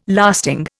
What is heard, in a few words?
"lasting", Indian